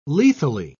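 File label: "lethally", British English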